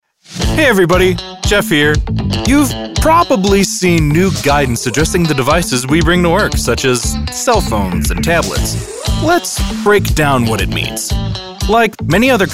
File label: Narration